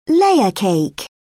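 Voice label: "layer cake", British English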